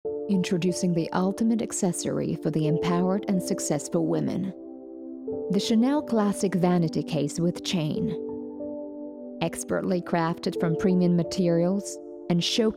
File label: voice over